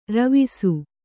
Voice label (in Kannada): "ರವಸ", Kannada